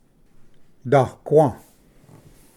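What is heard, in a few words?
"daqua", Cherokee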